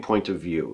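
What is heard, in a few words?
"point of view", US English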